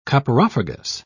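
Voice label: "coprophagous", British English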